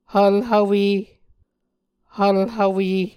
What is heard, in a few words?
"hvlhvwe", Muscogee